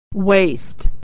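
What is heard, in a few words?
"waste", American English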